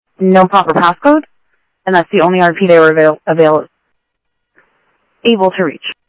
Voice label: Sheriff Common, scanner audio trunk; ThompsonPeakScot; MCSO - Info

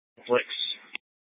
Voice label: Phx PD Dispatch, scanner; C- Chandler

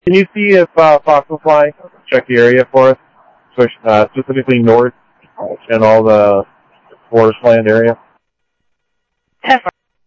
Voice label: Sheriff, scanner audio

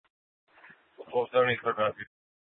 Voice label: Dispatch; Regional Wireless Cooperative (RWC); Thompson Peak High; Scottsdale PD; Nth Dispatch

